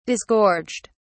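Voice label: "disgorged", British English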